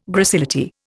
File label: "gracility", Indian